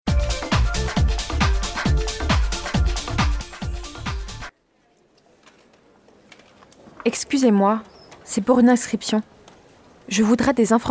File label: French